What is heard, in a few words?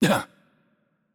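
Italian, hurt light; VO; bloom minigame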